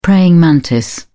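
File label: "praying mantis", English, British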